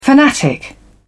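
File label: "fanatic", British English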